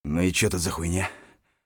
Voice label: mutter; Escape from Tarkov